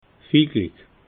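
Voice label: "viel glieck", Alsatian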